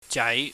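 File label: "jai", Cantonese, mid rising tone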